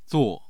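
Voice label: "zuo4", Mandarin Chinese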